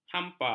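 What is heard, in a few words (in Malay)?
"hampa", Malay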